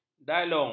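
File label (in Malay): "dalung", Malay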